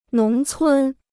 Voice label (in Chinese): "农村", Chinese